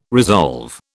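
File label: "resolve", British English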